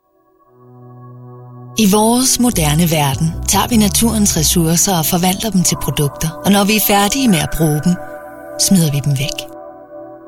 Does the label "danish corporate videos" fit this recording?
Yes